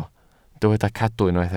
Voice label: "cadw", Welsh

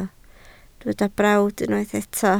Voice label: "brawd", Welsh

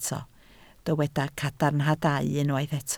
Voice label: "cadarnhadau", Welsh

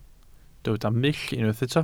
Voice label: "mull", Welsh

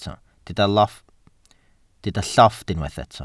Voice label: "llofft", welsh

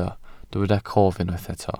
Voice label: "cof", Welsh

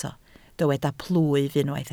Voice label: "plwyf", Welsh

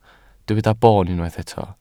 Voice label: "boon", Welsh

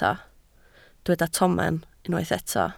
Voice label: "tomen", Welsh

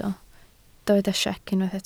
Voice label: "siec", Welsh

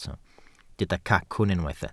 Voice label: "cacwn", Welsh

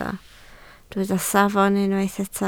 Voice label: "safon", Welsh

